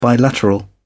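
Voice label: "bilateral", British English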